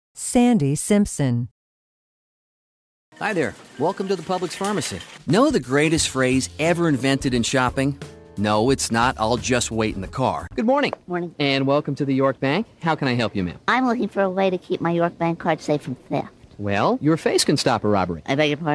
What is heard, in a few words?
commercial; Innovative LA